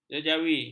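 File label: "jejawi", Malay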